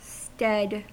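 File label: "stead", US English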